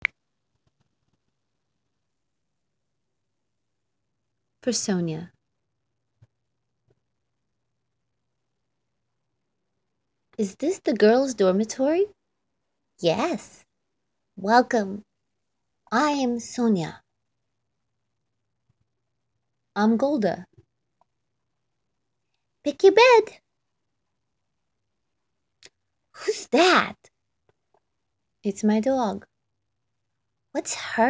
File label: Voice Over English and Russian